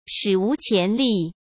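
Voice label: "史无前例", Mandarin Chinese; hsk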